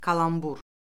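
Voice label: "kalambur", Azerbaijani; Orfoqrafiya Lüğəti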